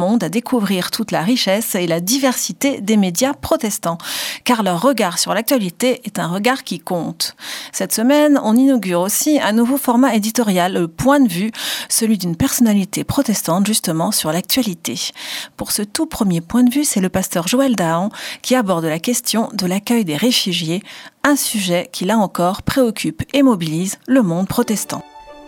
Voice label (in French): Spot radio; Regards protestants